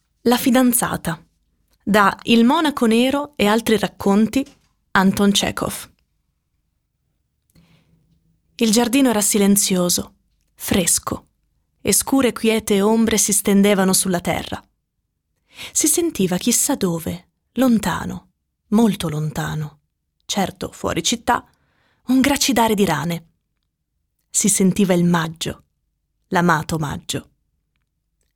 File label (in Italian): audioibri